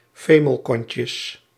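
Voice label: "femelkontjes", Dutch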